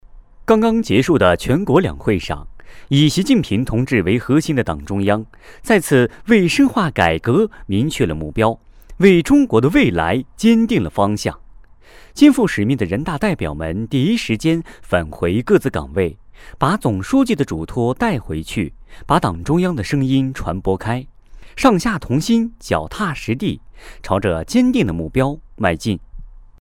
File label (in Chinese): 新闻配音